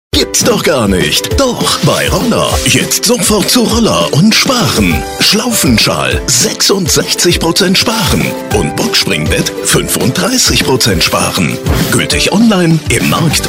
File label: TV-Spot